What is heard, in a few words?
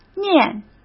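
"念", Mandarin Chinese; hsk; chinese-flashcards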